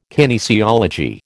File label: "kinesiology", American